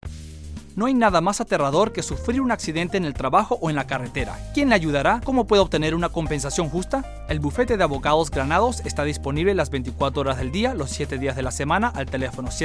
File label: VO Spanish